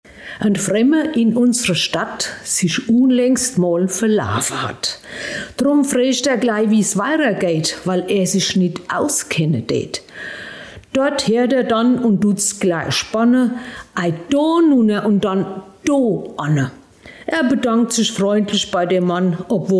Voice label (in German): Mundart